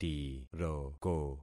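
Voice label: "tiroko", concatenated syllables; Affixing experiment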